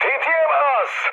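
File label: attack position, mood med, Turkish; speech; War Thunder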